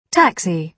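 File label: "taxi", British English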